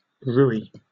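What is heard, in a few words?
"rouille", British English; pronunciations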